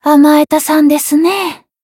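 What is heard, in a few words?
Voice heal, Japanese; hoozuki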